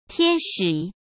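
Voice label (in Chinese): "天使", Mandarin; chinese flashcards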